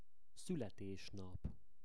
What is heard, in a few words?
"szuletesnap", Hungarian; szavak es kifejezesek